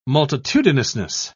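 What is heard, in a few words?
"multitudinousness", British English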